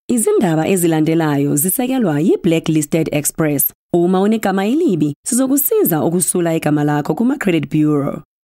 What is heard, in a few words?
Zulu